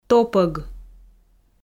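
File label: "топӧг", Komi, female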